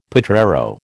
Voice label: "potrero", American